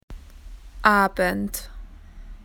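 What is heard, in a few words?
"evening", Australian English; PictureDictionary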